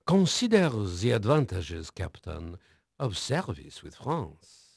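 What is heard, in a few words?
French male, voice; Pirates Of The Caribbean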